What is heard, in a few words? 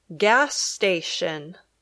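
"gas station", English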